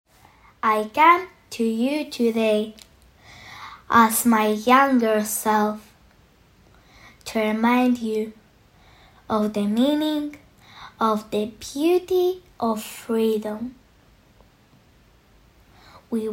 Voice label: ukrainian girl child voiceover locutora nina ucraniana english recording